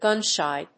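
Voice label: "gun-shy", English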